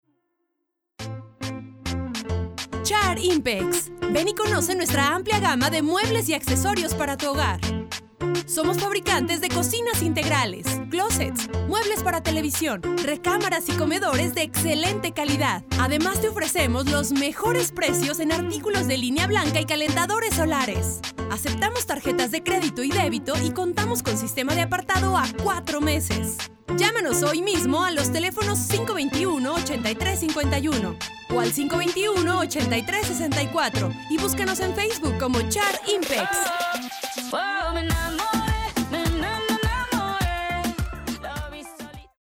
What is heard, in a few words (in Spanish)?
perifoneo; locutora; charimpex fabricantes